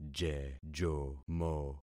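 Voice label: "jejomo", concatenated syllables; Affixing experiment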